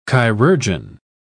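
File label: "chirurgeon", English, British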